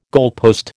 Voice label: "goalpost", American